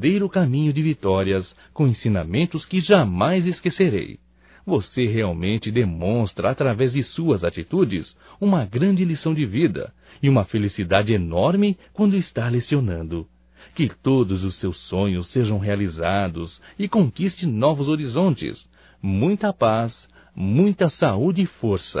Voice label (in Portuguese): Aniversário Professora Masculino; Aniversarios; Telemensagens 2017